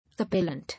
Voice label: "Appellant", English